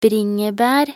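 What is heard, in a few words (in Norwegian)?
"bringebær", Norwegian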